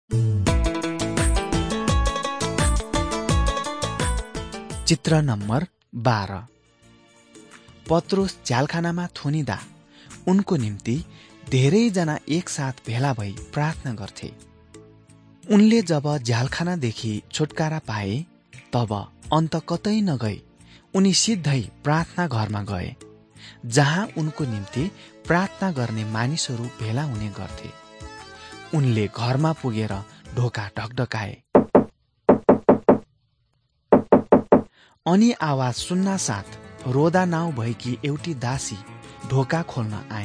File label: acts of the holy spirit nepali